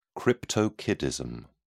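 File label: "cryptorchidism", British English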